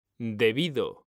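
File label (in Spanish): "debido", Spanish; mekanta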